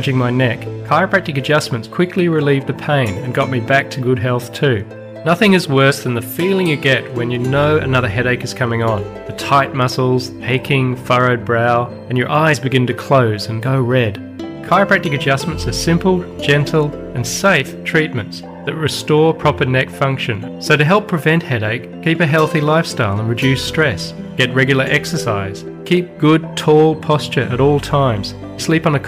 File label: 60 Sec Radio Ad Migraine